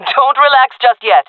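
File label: round winning, jungle female; vo; Counter Strike Model Pack; CS:GO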